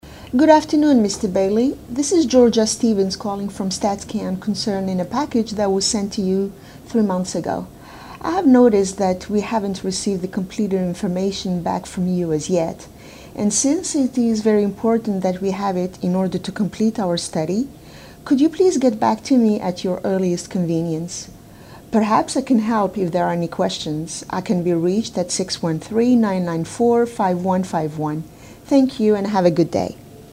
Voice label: voicemail